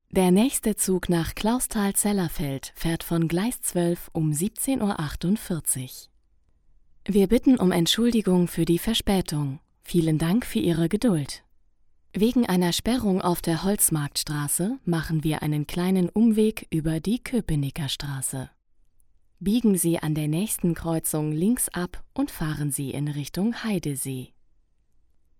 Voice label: navigation

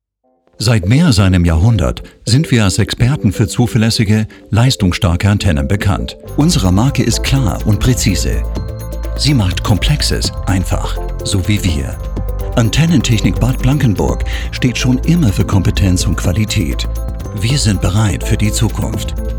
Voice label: automotive de

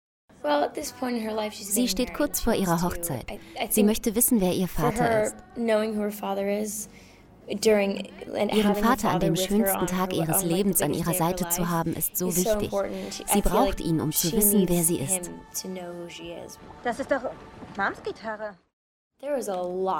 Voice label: voice over

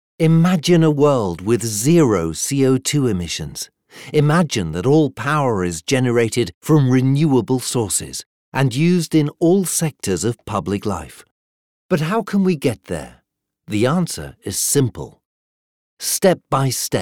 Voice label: industriefilm eng; siemens zero co2; Muttersprache Deutsch, Englisch, Englisch/UK

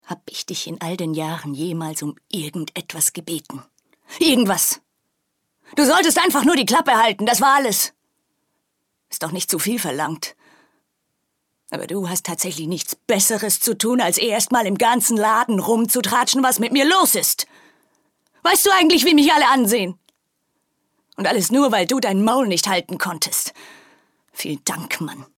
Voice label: heated

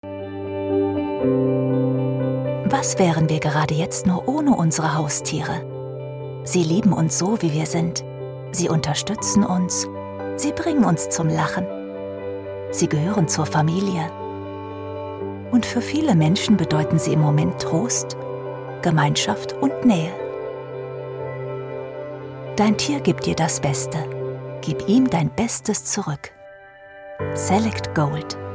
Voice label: commercial sanft; Sprecher in Berlin, Bremen, Hamburg, Hannover, Kiel, Lübeck, Lüneburg

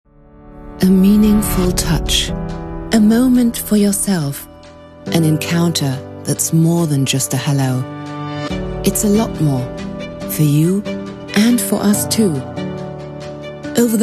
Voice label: imagefilm english; babor

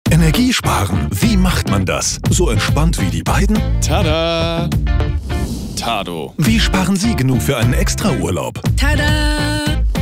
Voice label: commercial; tado; Bornheim; Muttersprache Deutsch

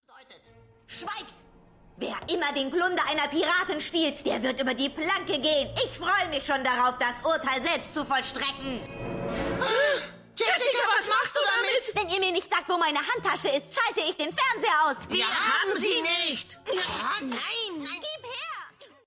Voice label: jibber jabber

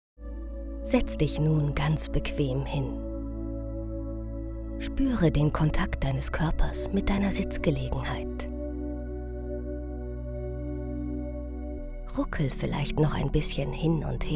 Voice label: meditation; siemens healthineers

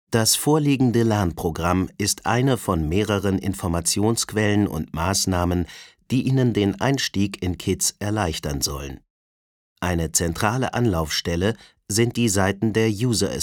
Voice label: elearning; tuv

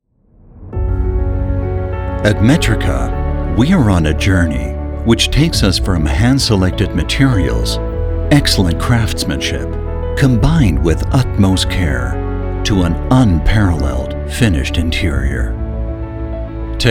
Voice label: imagefilm engl; metrica

